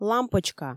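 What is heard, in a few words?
"лампочка", Komi, female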